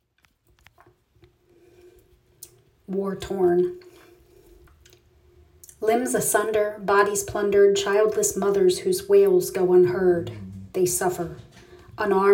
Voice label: poem; War Torn; Ekphrastic